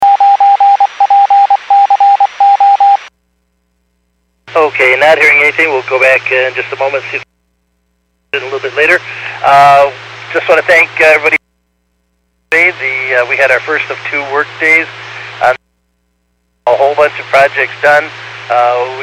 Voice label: Skywarn 146.67; Stevens Point